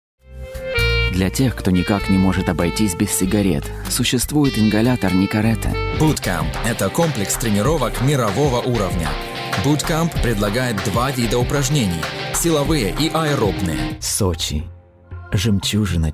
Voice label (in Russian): RUS